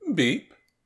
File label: Voices intrigued; The Looker